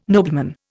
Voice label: "nobleman", Indian